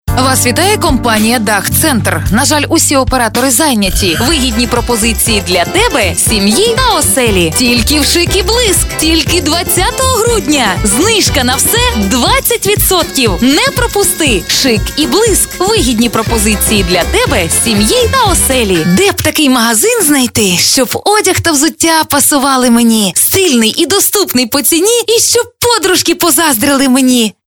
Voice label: ukrainian commercial